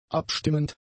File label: "abstimmend", german; adjectives